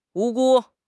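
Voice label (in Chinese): "无辜", Chinese; HSK6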